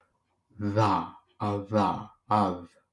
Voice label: Voiced linguolabial fricative